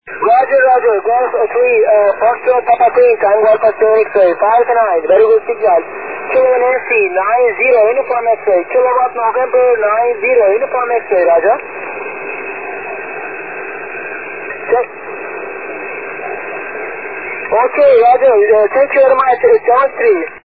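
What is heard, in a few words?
6m single sideband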